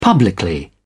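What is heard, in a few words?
"publicly", British English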